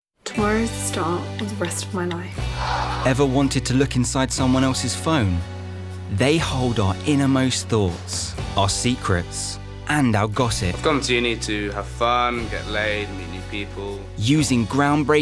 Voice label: Documentary Students